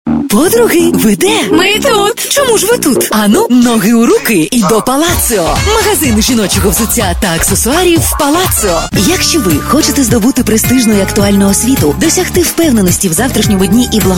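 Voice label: Ukrainian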